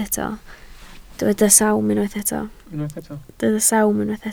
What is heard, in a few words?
"sawm", Welsh